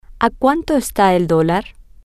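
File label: what is the dollar worth, Spanish, female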